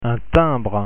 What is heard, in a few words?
"timbre", French; pronunciation